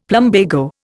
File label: "plumbago", Indian; Dictionary